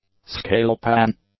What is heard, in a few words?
"scale pan", English; nouns